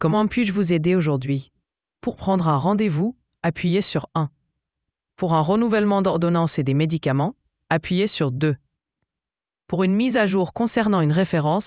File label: question french